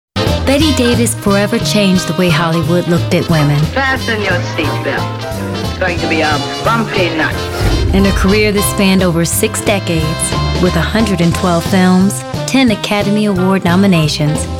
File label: Narrations, voice